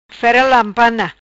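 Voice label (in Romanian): "Fera Lampana", Macanese Patuá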